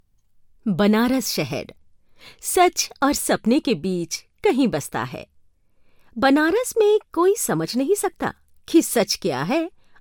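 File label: documentary hindi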